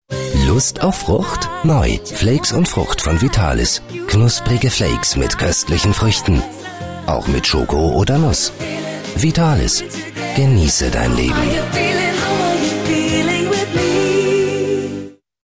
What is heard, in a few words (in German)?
Freundlich